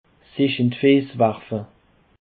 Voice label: "fiesswerfe", Alsace